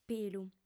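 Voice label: "pilu", female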